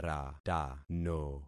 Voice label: "ratano", concat syllables; Affixing experiment